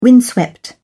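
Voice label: "windswept", British English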